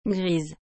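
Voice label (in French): "grise", French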